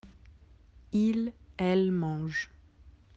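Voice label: "manger", French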